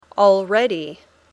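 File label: "already", English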